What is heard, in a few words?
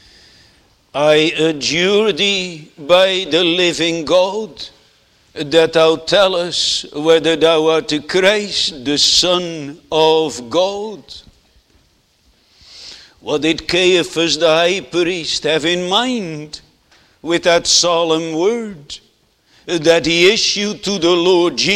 Sermon; Swearing an Oath